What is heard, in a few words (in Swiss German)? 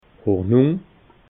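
"hornung", Alsatian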